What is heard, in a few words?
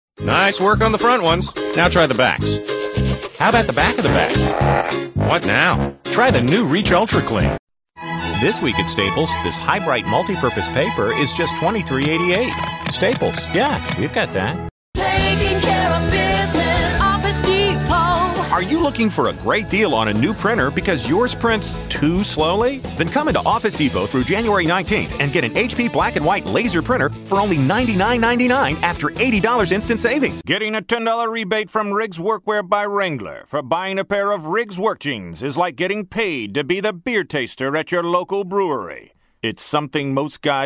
VO Commercial